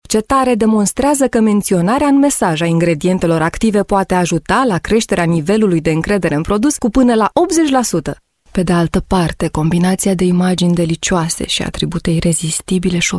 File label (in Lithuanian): (MOT) Rumunu balsas, moteris